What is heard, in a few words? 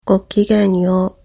bon voyage, japanese